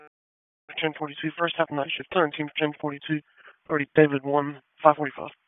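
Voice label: Police Northeast District Dispatch; Raleigh; wake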